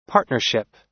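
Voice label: "partnership", American English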